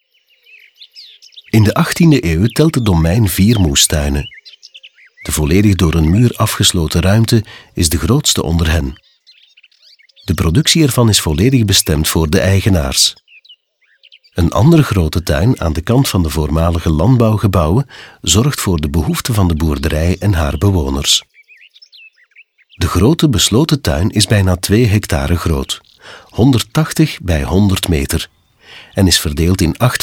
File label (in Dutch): audioguide nl adulte; jardin; modave